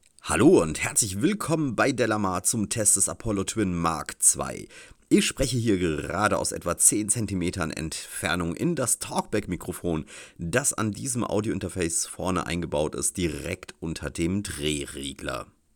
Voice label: universal audio apollo twin mkii talkback